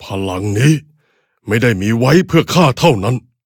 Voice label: levelup, th; cookie run